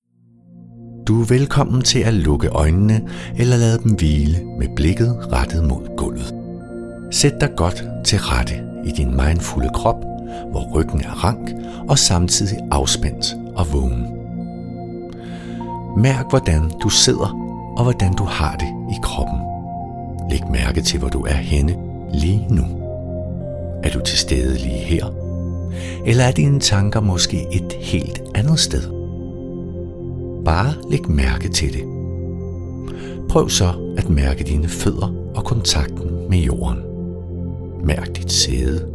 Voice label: Mindfulness Guidning

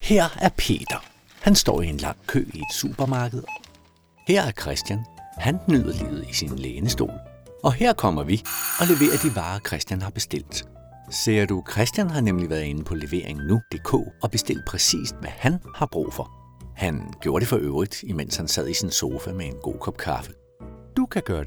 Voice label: Videopresentation

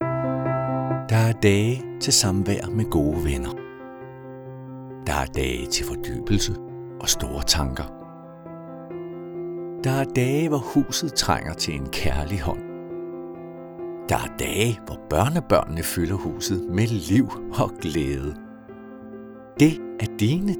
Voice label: Videopresentation

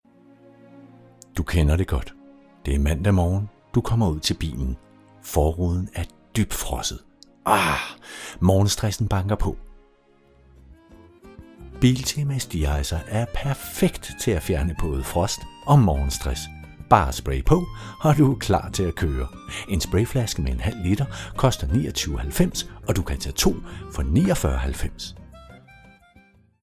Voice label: Reklame stress og så humør

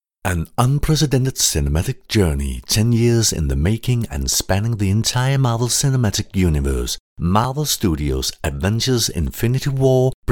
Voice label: Film trailer Engelsk

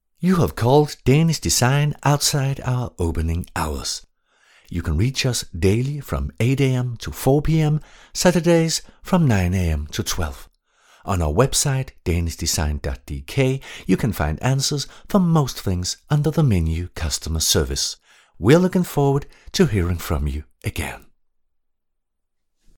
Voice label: Tlf. svarer Engelsk

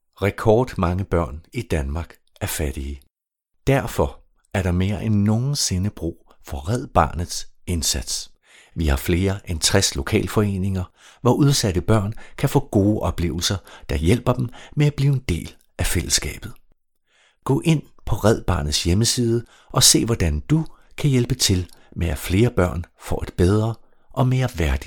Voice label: Reklame Rolig og troværdig

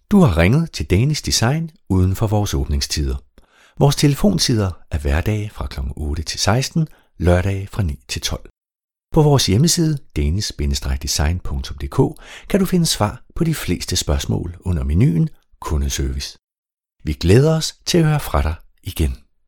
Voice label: Tlf. svarer Dansk

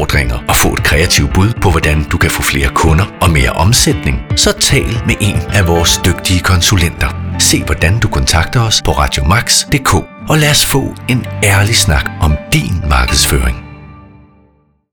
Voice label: Reklame Troværdig og munter

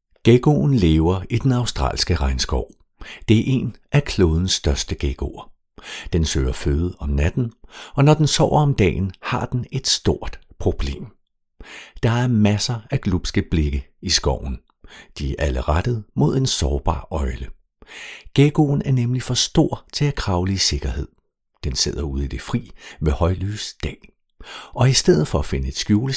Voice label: Naturfilm dokumentar

